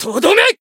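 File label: Voice finish, Japanese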